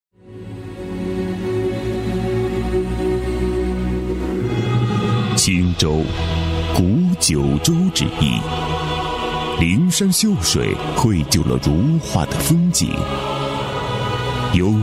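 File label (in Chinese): 男 纪录片 大气深情, 普通话; 青州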